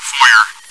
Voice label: chitchat, bot, voice commands; svencoop